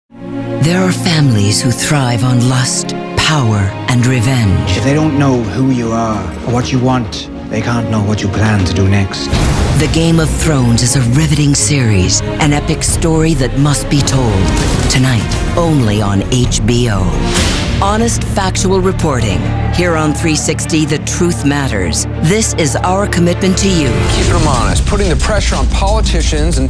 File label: Promo